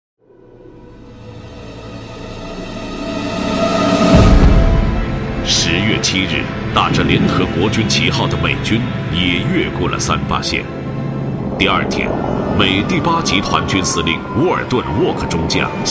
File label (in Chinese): 纪录 军事, 国语男声配音; CCTV-1《断刀》抗美援朝60年